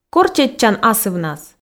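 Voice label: "кор чеччан асывнас", Komi, female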